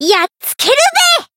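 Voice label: finish, Japanese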